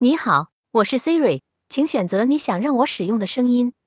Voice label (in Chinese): zh-CN; iOS 26